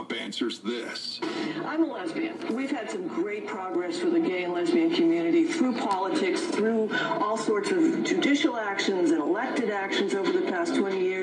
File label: Robo Call; Cruz